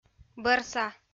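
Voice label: "бӧрса", Komi-Zyrian, female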